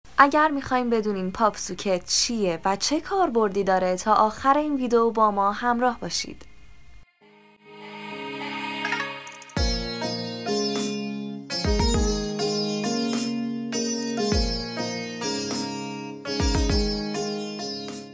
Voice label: narrator